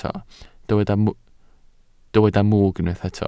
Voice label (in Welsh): "mwg", Welsh